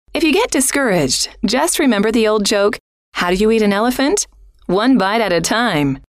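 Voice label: if you get discouraged, female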